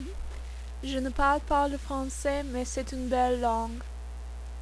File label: French